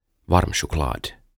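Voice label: "Varm Choklad", Swedish; Kaakao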